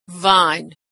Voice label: "vine", pronunciation

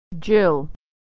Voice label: "jill", pronunciation

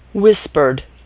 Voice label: r whispered

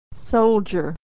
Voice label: "soldier", pronunciation

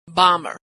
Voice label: "bomber", pronunciation

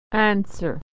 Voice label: "answer", pronunciation

